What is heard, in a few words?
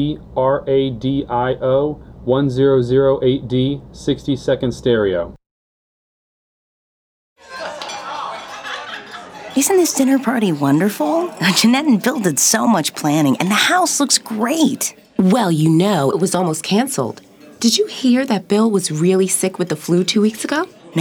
radio; Dinner Party; flu